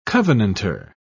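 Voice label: "covenantor", British English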